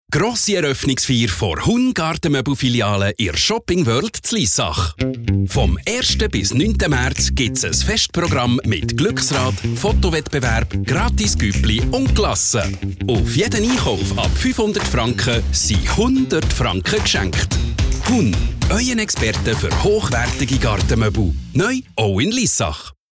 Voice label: Werbung CH BE, flippig frech verspielt

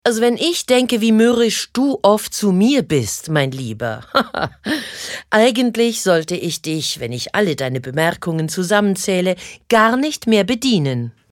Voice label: Hoerspiel CH DE

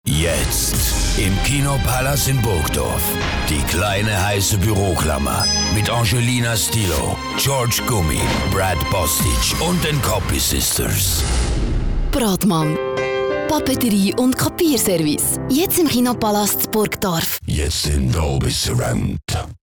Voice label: Werbung DE CH; flippig frech verspielt

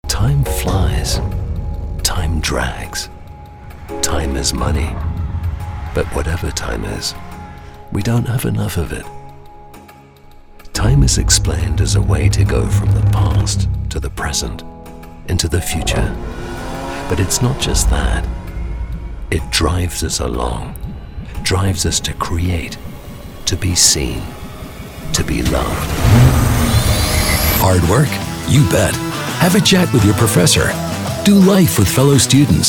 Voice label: Werbung EN UK; flippig frech verspielt

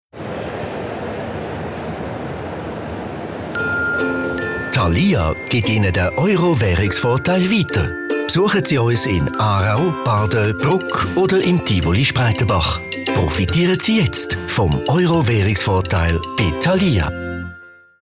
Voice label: Werbung CH ZH

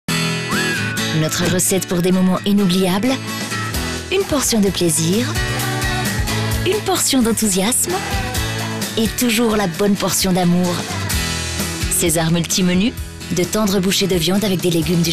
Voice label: Werbung FR CH, flippig frech verspielt

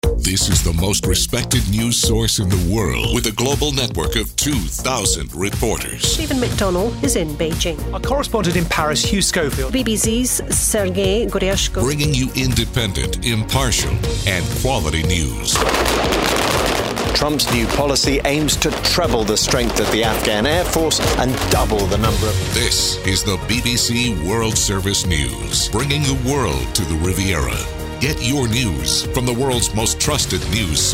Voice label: Werbung EN US; flippig frech verspielt

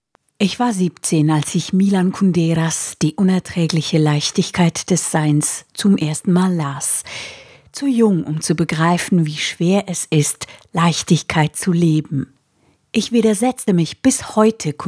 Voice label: OFF CH DE; hoch

